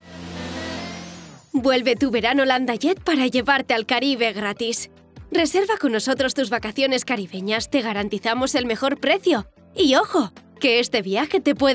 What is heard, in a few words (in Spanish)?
Publi Castellano